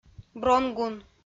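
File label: "бронгун", Komi, female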